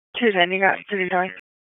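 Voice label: Police Northeast District Disp, radio; Raleigh; wake p25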